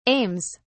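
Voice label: "aims", British English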